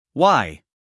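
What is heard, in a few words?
"y", US English, male; sounds-of-american-english; everyone-can-use-english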